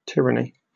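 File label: "tyranny", British English; pronunciations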